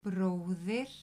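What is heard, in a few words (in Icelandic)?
"bróðir", Icelandic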